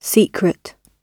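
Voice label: "secret" spoken, British English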